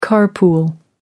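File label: "car pool", English, British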